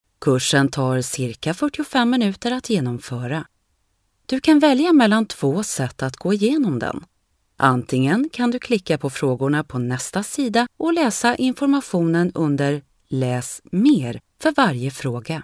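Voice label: Swedish e-learning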